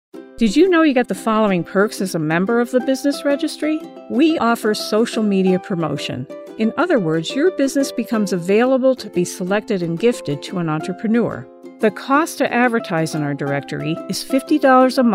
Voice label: Explainer Video

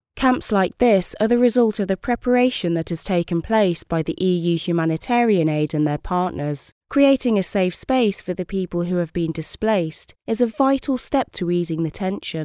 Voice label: narration sample; mosul

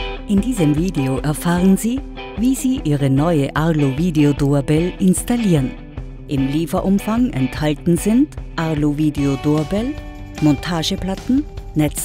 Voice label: explainer Doorbell; ARLO

